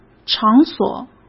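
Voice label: "场所", Mandarin Chinese; hsk; chinese-flashcards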